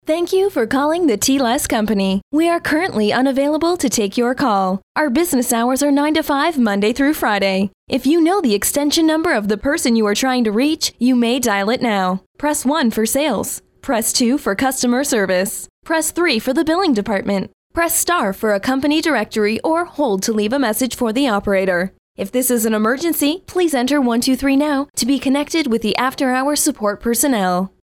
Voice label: Phone Message

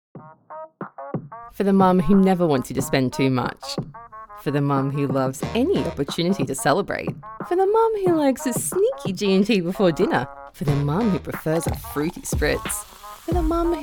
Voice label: Aus Voice Reel

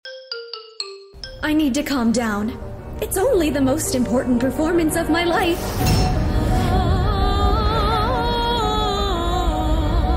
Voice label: video game vo

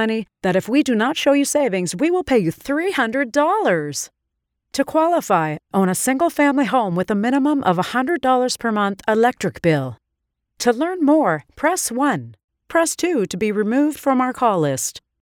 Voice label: Phone IVR Solar Product

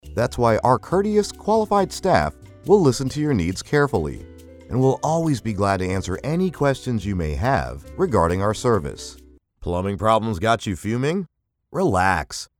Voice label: IVR Scratch

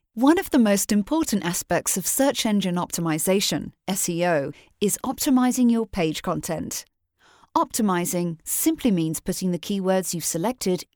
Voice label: Soft British eLearning

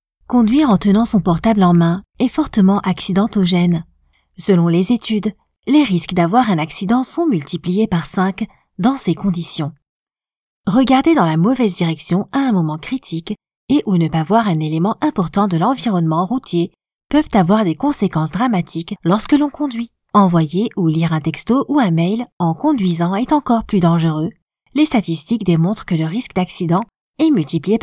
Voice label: narration security

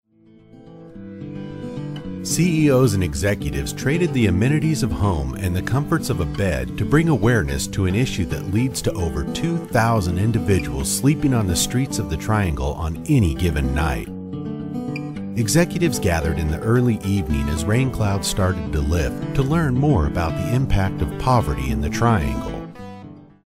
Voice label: Corp Narration

